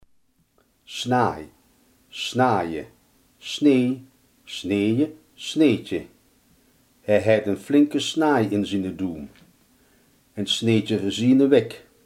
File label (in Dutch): "snee", Limburgs